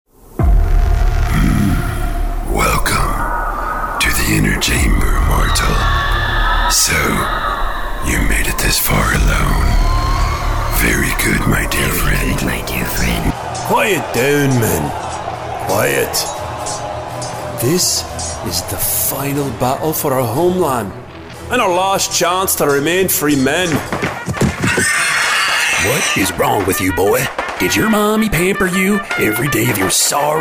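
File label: characters, Male Voice Artist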